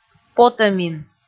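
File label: "потӧмин", Komi, female; kpv audio dict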